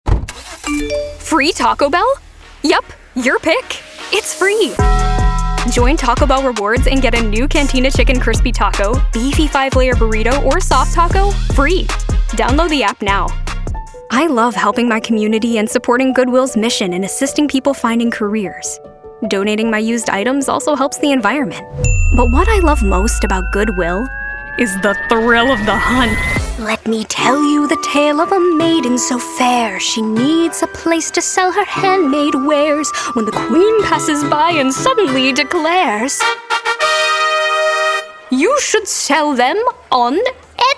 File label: Commercial